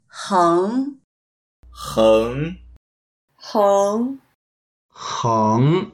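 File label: "heng2" Mandarin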